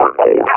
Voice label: retreat, combine officer vo